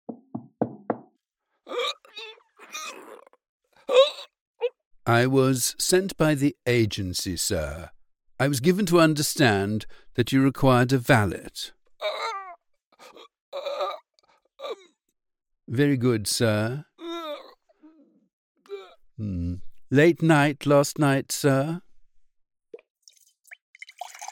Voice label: Vintage, vintage british accent; Jeeves and Wooster